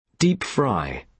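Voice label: "deep fry", British English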